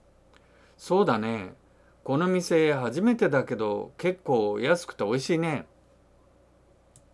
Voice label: dialogue line, japanese